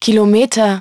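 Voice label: "kilometers", English; iOS 4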